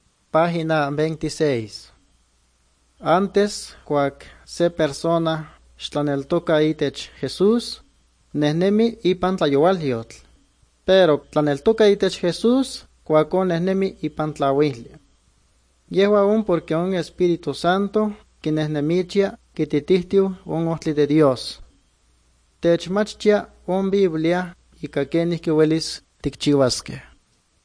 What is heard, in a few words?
good news, nahuatl guerrero chilapa; bible; guerrero nahuatl the bible league nt drama